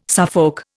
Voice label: "Suffolk", Indian